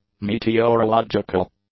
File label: "meteorological", english; adjectives